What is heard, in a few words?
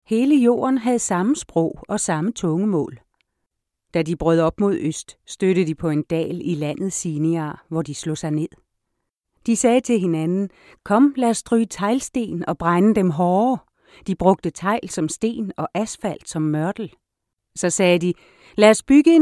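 Danish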